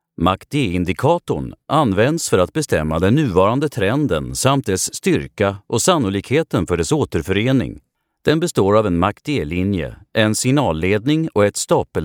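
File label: Swedish informative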